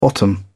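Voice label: "bottom out", British English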